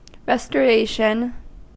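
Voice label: "restoration", US English; pronunciations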